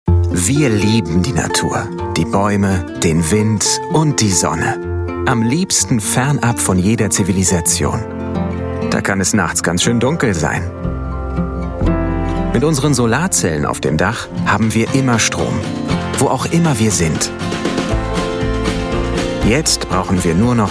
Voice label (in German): Solarstrom Werbung